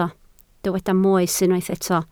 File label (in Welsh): "moes" Welsh